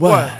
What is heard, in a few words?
"what", Voice; ooh ahh